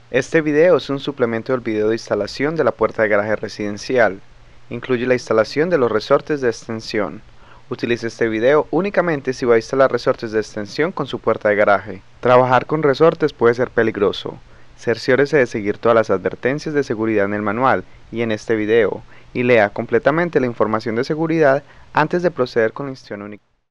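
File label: Latin American Spanish Male 25, Foreign Voice Talent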